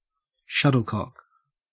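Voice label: "shuttlecock", Australian English; pronunciations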